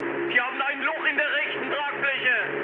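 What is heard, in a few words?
German right wing mood high, speech; War Thunder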